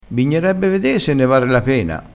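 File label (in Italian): "bignerebbe", dialettale crespinese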